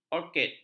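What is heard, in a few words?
"orkid", Malay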